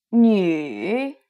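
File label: "nv", Mandarin pinyin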